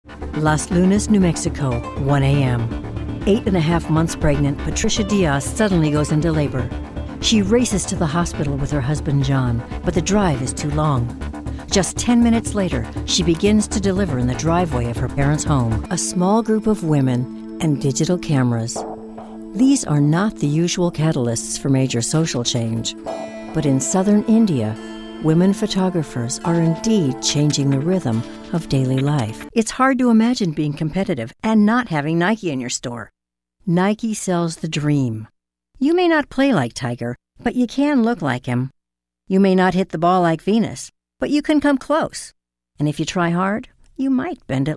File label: Narration